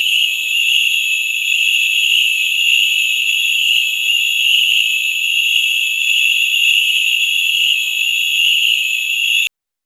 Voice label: a distorted whispered voice